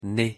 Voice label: "nez", fr